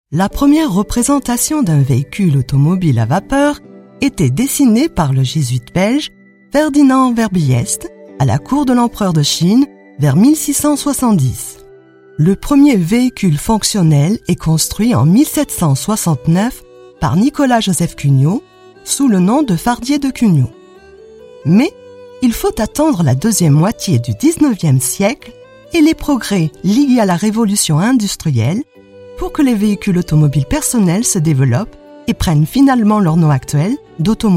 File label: French; Voiture